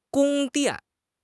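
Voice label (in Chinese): "宫殿", Chinese; HSK6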